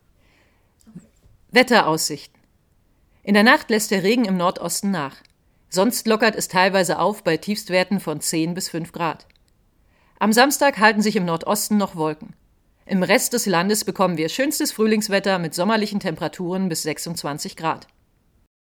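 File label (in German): Wetter, Sprecherin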